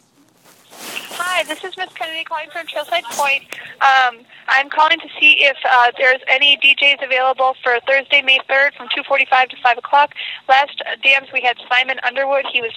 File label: voicemail